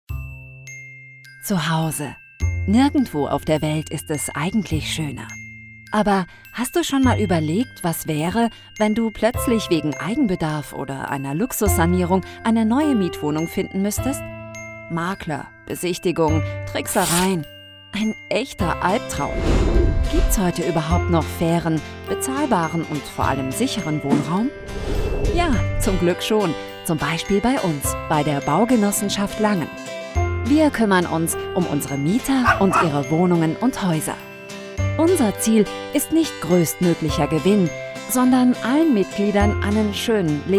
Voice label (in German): erklaerfilm zugewandt verbindlich; baugenossenschaft